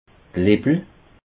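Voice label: "lippel", Alsatian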